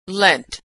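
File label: "lent", American English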